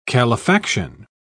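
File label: "calefaction", English, British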